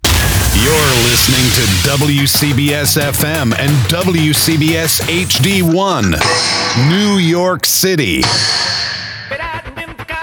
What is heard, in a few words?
Classic Hits Imaging; JackFM